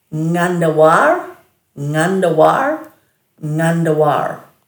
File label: "ngandhawar", Dhurga; dulagaal